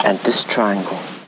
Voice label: LoFi Phrases, LoFi Vocals; Vengeance Samples